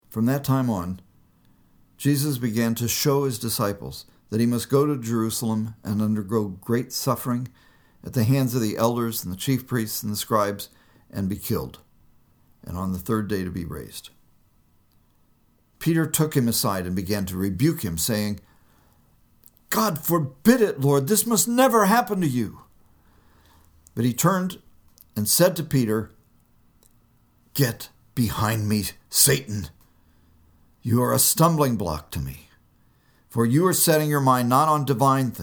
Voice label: storytelling